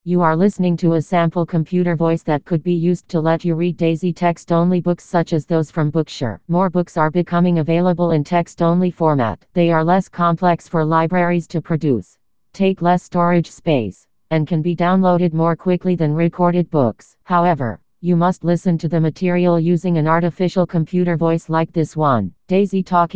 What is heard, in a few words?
English US Female; Samantha